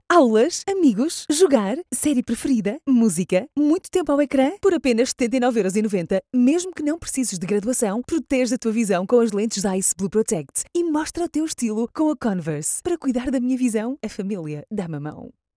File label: VO Fast Commercial; Zeiss